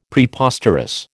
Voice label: "Preposterous", American

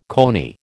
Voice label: "coney", American

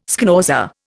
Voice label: "schnauzer", Indian

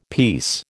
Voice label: "peace", American

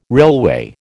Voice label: "railway", American

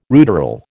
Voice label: "ruderal", American

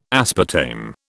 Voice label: "aspartame", British

